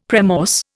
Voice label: "premorse", Indian; Dictionary